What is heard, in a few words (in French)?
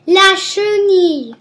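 "chenille", French; Les insectes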